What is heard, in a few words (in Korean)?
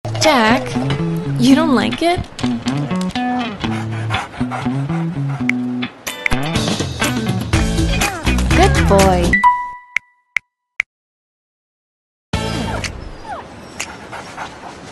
영어 여 밝은 톤; LG-G8x